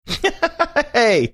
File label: laughing saying hey